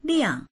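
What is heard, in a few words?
"liang4", Mandarin Chinese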